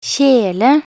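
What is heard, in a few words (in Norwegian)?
"kjele", Norwegian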